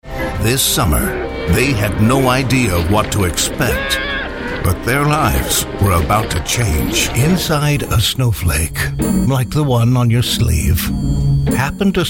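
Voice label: American movie trailer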